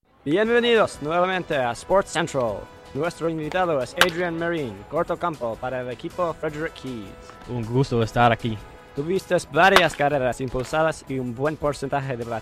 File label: Spanish; Frederick Keys